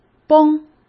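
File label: "绷", Mandarin Chinese; chinese flashcards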